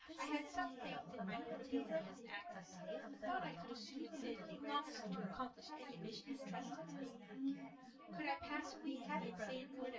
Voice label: female synth conv sim; SiSEC10; underdetermined